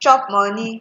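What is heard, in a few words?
"chop money", Nigerian Pidgin, female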